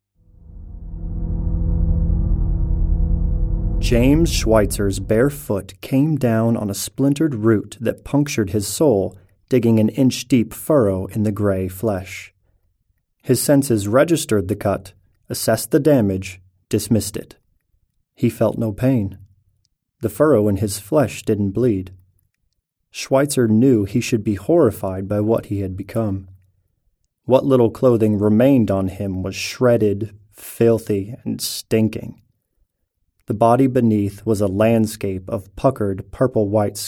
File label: narrative